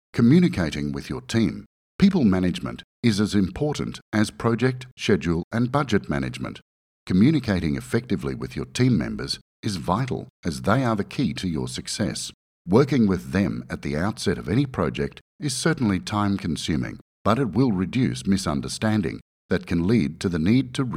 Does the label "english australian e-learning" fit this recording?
Yes